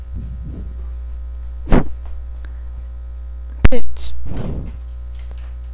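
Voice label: "pitch", spoken word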